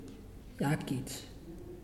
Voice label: "We", Muyuw; Lae Unitech